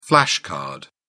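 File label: "flash card", British English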